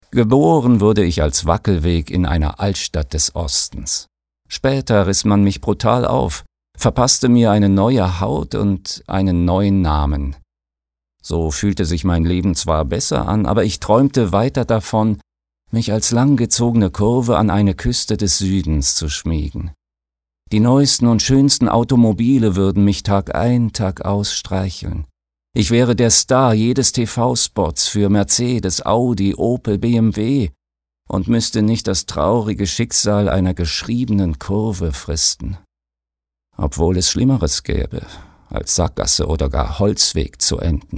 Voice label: Energisch

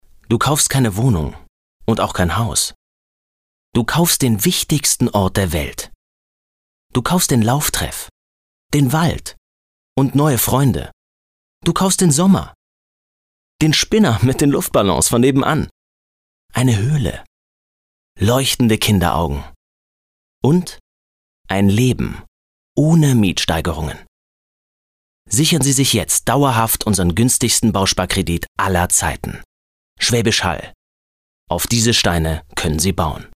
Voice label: Fremdtake Dynamisch

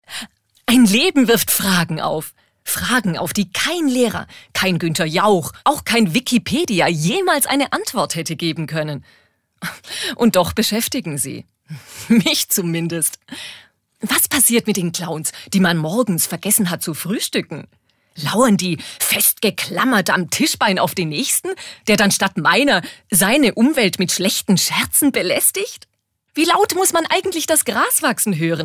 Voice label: Schauspiel Witzig

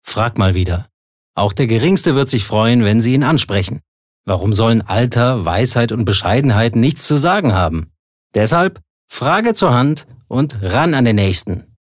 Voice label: Werbung Freundlich

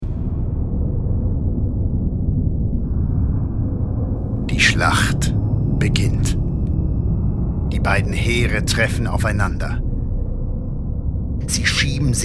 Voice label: Geheimnisvoll; Fremdtake

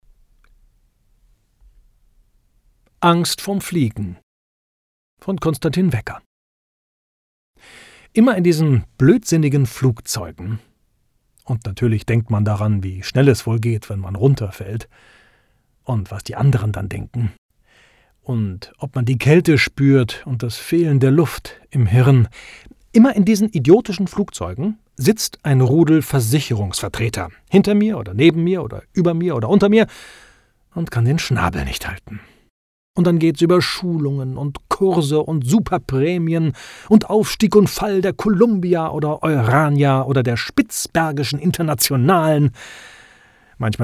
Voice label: Frech

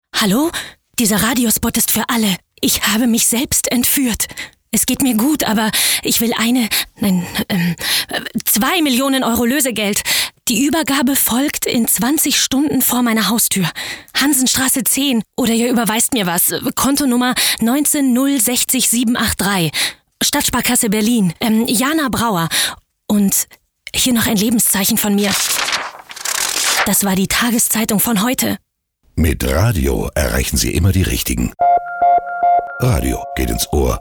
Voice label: Werbung Aufgeregt

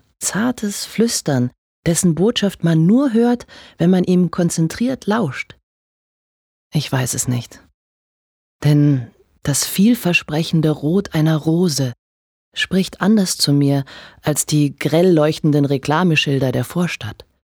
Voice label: Farbe Rot Sanft

